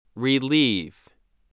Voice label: "relieve", spelling voice